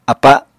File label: "apa", Apatani